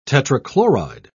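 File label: "tetrachloride", English, British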